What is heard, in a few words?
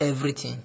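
"everyting", Nigerian Pidgin, male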